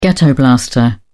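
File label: "ghetto blaster", British English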